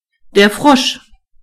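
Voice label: "frog", German; gcompris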